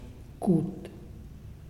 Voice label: Muyuw Louse; Lae Unitech